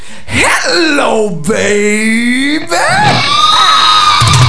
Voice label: baby